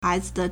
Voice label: "hai2zi3", Chinese